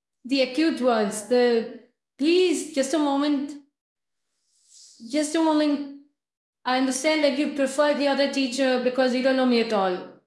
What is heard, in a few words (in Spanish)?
Voice Acting es F0